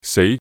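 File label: "sei2", Cantonese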